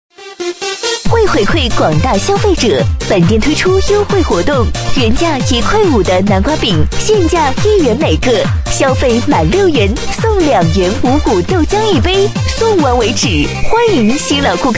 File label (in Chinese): 女 促销 回馈广大消费者, 促销女配